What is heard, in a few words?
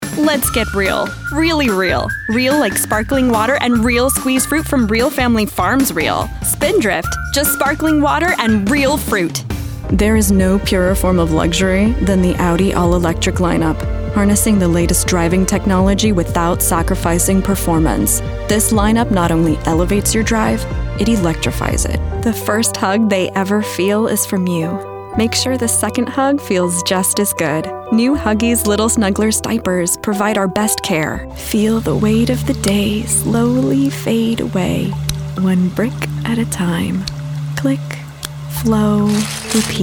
Commercial